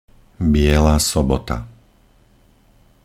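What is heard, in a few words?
"Biela sobota", Slovak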